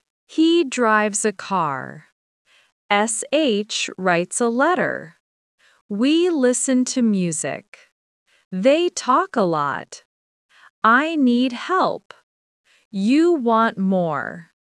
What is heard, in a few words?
AI speech